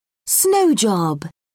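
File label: "snow job", British English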